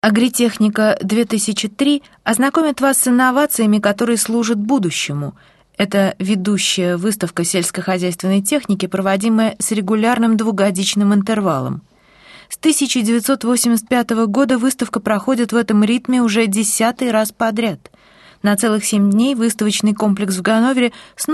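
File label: Russian, female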